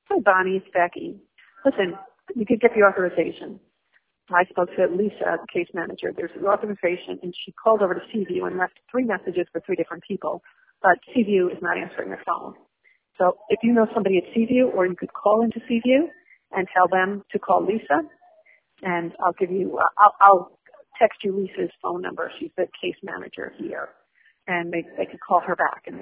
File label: voicemail